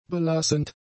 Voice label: "belassend", German; adjectives